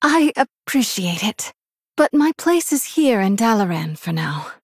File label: Human Female